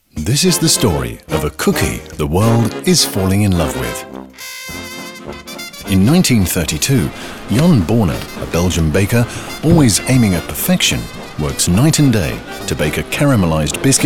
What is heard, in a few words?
corporate; Lotus